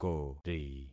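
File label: "kori", concatenated syllables; Affixing experiment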